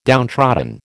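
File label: "downtrodden", American English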